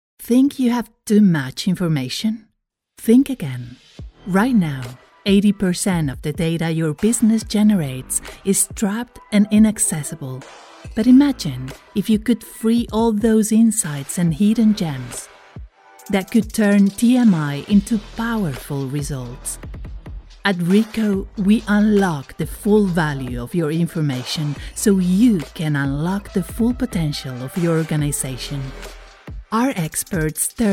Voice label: English confident, English Commercial Voiceover, Spanish Female Voice